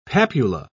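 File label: "papula", British English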